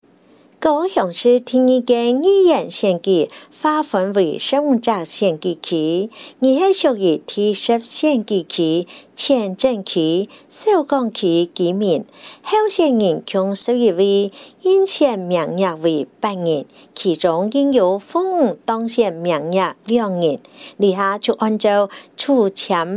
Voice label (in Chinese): 客語; 高雄市; 高雄市市長議員有聲選舉公報; 第十選區